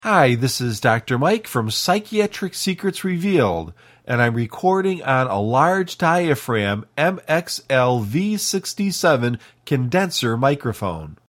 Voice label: MXL v67